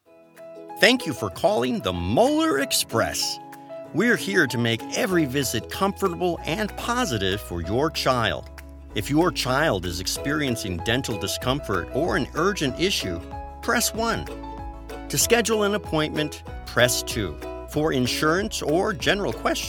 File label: IVR; Molar Express; telephony ivr